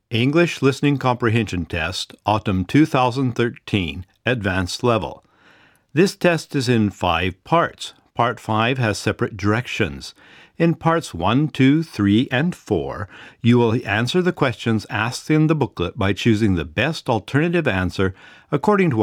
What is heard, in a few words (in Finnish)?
Englanti pitkä kuuntelukoe äänite syksy; Engelska lång hörförståelseprov inspelning hösten